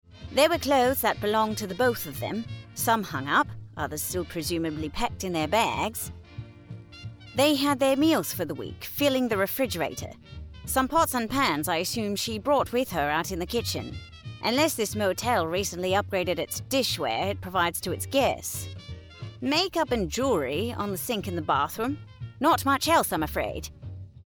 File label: F MidAtlantic Adult Vintage; Neuro; Mrs Day